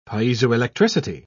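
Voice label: "piezoelectricity", British English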